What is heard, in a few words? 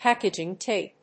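"packaging tape", English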